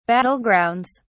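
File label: "battlegrounds", British English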